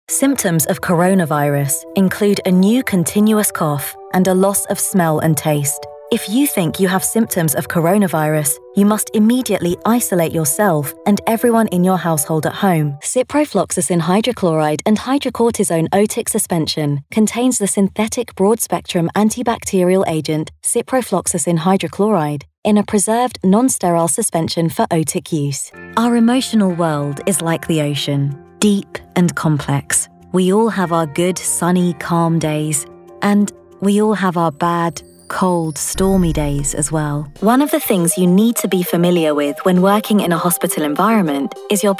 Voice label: voiceover medical narration